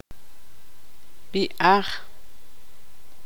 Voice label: tzutujil; cabeza